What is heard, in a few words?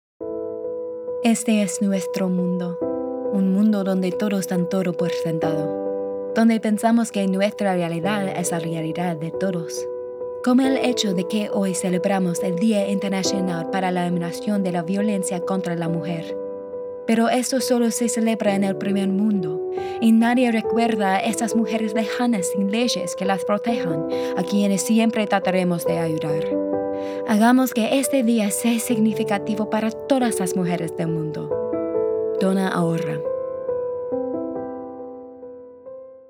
Spanish